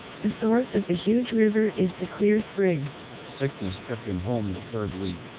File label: melpe 2400 snr 10 db; AMR-NB 4750 bps